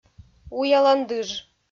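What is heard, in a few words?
"уялан дыж", Komi, female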